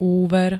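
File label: "úver", Slovak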